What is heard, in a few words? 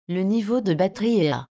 battery power level, fr_FR; SvxLink; BackupPower